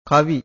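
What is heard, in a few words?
"kavi", Pali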